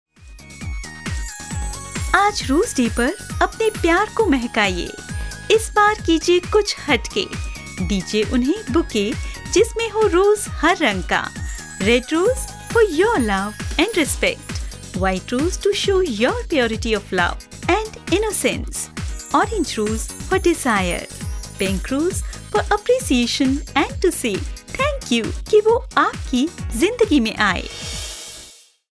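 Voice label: prompt hindi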